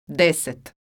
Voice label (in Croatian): "deset", croatian